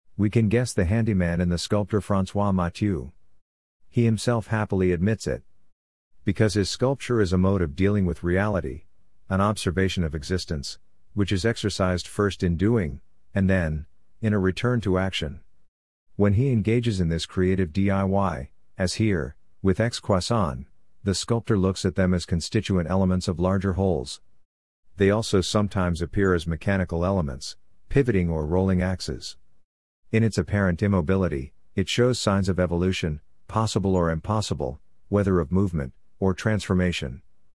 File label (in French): anglais